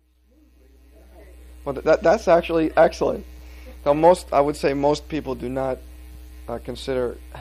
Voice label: Long Island New York White Male 30 40; North America; US Northern East Coast Dialects